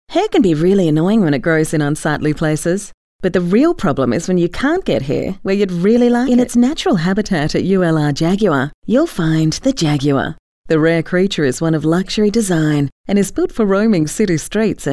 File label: Soft Sell